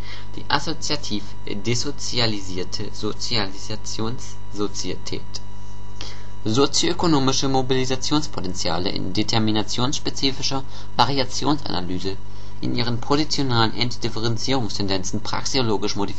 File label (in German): Soziologisches Deutsch